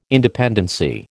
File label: "independency", American